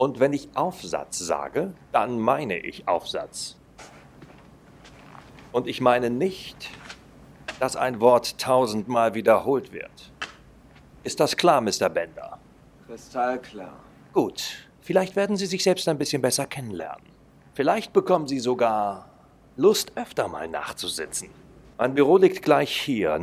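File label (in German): Synchrotraining, Filmsynchronisation (Trainingscut), Lip-Sync, German; Filmsynchronisation (Trainingscut) Direktor Vernon, Breakfast Club; LOFT VOICES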